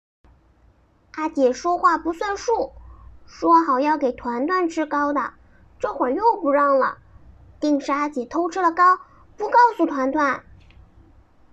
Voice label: 萝莉音

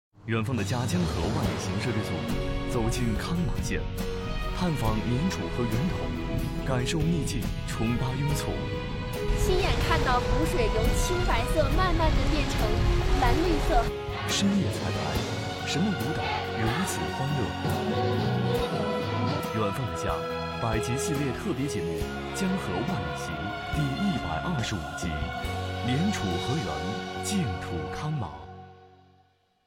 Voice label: 预告; CCTV4; 远方的家

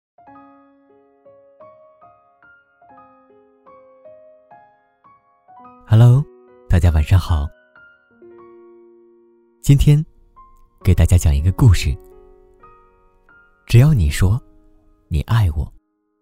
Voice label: 情感夜听

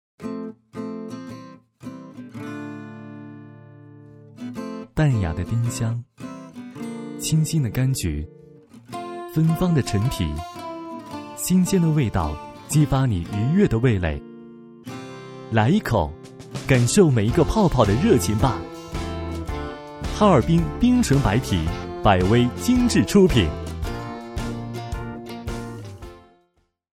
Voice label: 啤酒品质广告

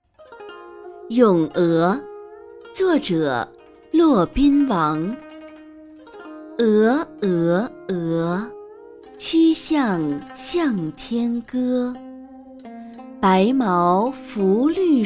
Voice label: 古诗咏鹅女成人

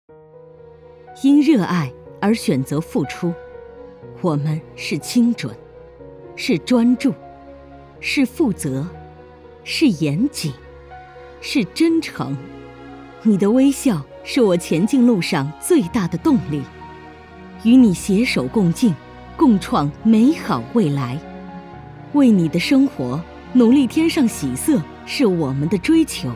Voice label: 宣传片

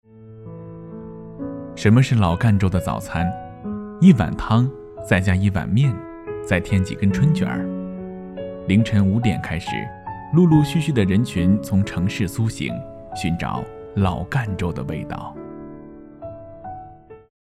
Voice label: 微电影 旁白 讲述 自然亲切

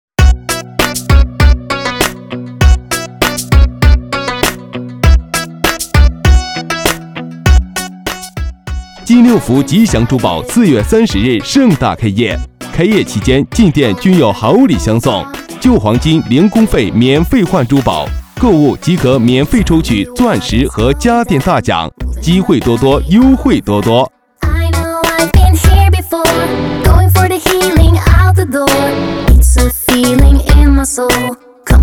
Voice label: 促销门店

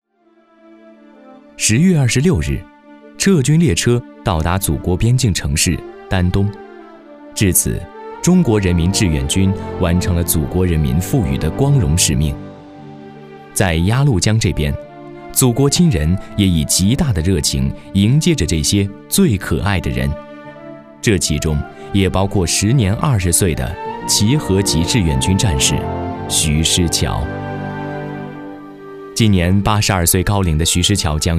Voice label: 电视纪录片; 将星耀齐河

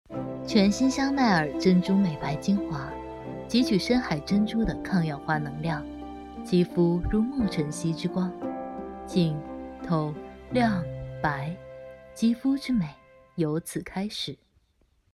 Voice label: 廣告化妝品

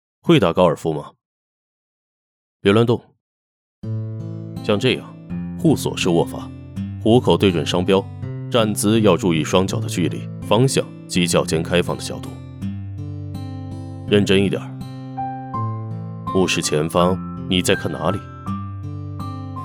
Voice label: 角色青年总裁

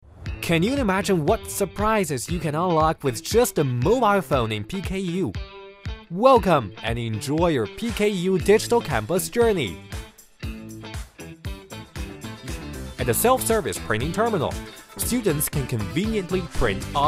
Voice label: 英文配音; 数字北大

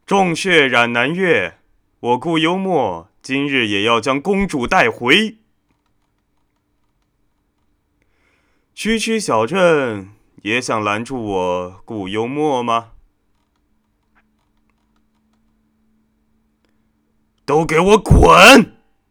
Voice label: 有声小说 男播; 凉巷工作室

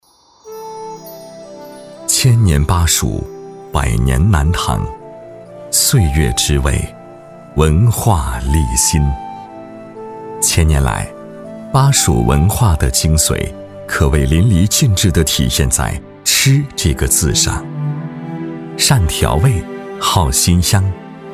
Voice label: 纪录片

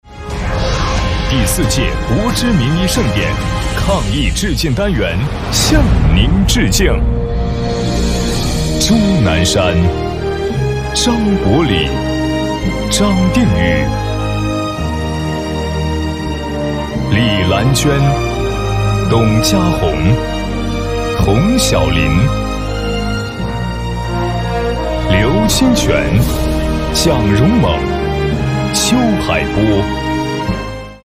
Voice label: 大气浑厚; 国之名医盛典

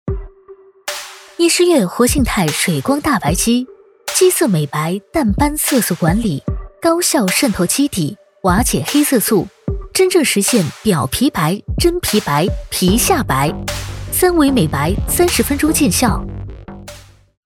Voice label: 美妆欢快; 护肤; 彩妆

